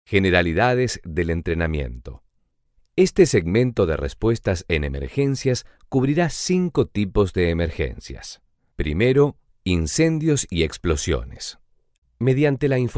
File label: male Spanish, Spanish corporate, Spanish Movie Trailer Voice With Gravitas